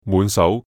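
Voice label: "滿手", Cantonese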